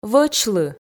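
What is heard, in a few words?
"вӧчлы", Komi, female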